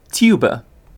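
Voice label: "tuba", British English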